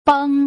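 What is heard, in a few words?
"beng", Mandarin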